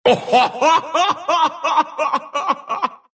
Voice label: Monkey laugh; Dota 2

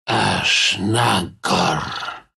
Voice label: incant ru; Dota 2

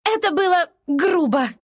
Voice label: Wind death, ru; Dota 2

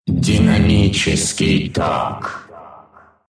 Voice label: magnetic field ru; Dota 2

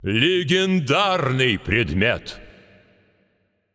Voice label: Lobby Card Legendary, Russian

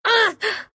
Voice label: Wind pain; Dota 2

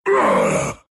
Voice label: pain, ru; Dota 2

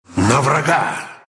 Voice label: attack, ru; Dota 2

